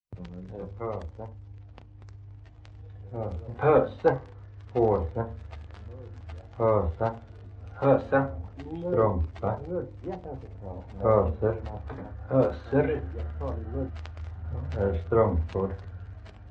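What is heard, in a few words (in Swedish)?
Värö, Swedish dialect; svenska sagor; Folkminnen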